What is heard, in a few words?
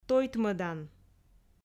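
"тойтмӧдан", Komi, female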